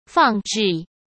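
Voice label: "放置", Mandarin Chinese; hsk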